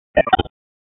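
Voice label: Wake Forest Police Dispatch, p25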